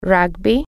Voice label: "rugby", Italian

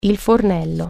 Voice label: "stove", Italian

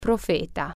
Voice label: "prophet", Italian

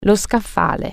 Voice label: "shelf", Italian

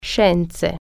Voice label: "science", Italian

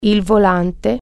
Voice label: "steering wheel", Italian

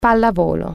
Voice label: "volleyball", Italian

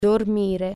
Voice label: "sleep", Italian

